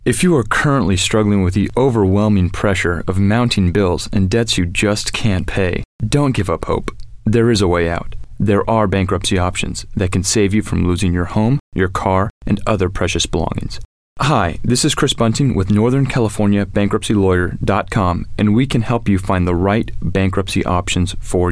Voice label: spot; energy 927